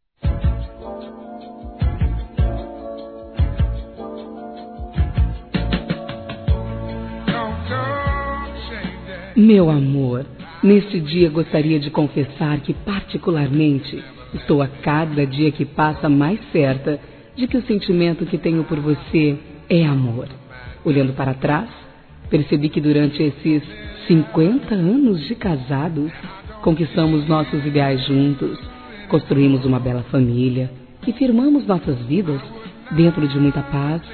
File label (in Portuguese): Bodas de Ouro Feminino, Telemensagens; Bodas e Casamento